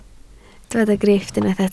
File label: "grifft", Welsh